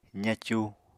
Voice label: "zacu", Apatani

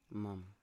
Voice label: "mam", Biak

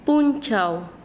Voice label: Chanka, quechua; dia

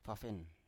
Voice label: "pafen", Biak

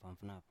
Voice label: "fafnaf", Biak

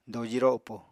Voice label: "doji ropo", Apatani

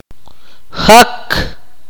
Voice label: "turn", Akateko

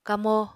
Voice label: "kamo", Apatani

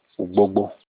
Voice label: club stick "ugbogbo", Olukumi

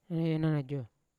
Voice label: "renajo" to ask, Yawa

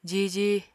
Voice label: "jiji", Apatani

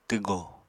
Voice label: "twgò", Apatani

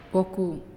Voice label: "Knee", Naasioi

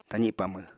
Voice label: "tazi pamw", apatani

